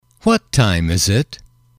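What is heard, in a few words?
what time is it, male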